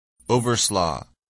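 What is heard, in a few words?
"overslaugh", English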